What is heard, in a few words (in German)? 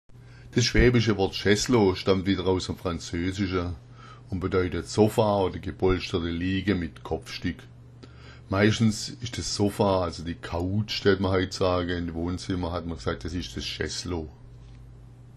"schessloo", schwaebisch